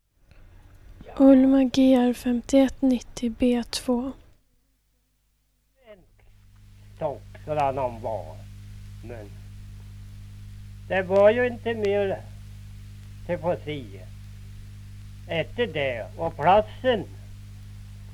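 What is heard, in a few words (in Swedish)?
Björnlunda Södermanland, dialekter; Svenska sagor; Folkminnen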